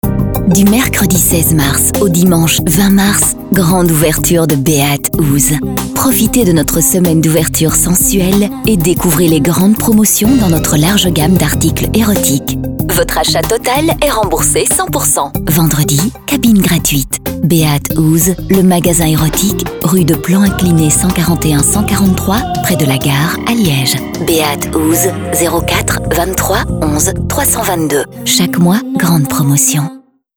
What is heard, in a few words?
fr commercial; ouverture liege